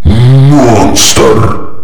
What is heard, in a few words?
monster kill, male